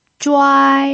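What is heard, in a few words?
"zhuai1", Mandarin Chinese pinyin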